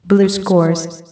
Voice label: blue scores, female; teamplay

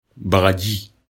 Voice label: "bagaji", lecture bm

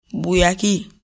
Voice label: "buyagi", lecture bm

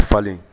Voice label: "falen", Bambara

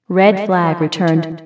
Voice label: red returned, female; teamplay

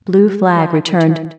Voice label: voc blue returned, female; teamplay

